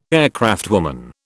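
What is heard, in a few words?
"aircraftwoman", British English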